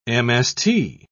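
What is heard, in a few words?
"mst", British English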